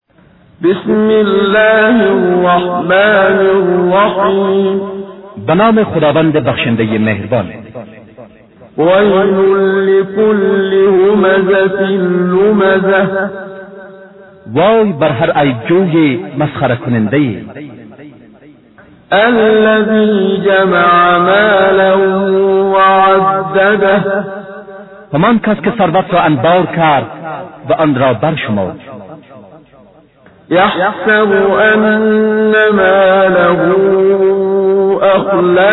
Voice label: Surah Farsi; Menshay Translation